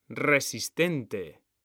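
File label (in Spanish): "RESISTENTE", Spanish; mekanta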